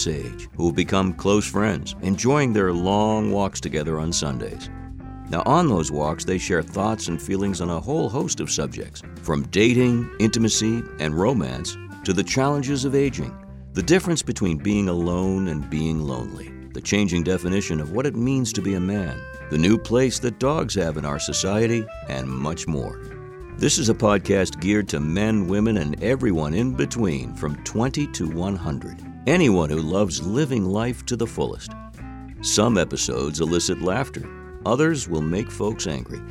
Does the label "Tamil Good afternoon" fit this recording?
No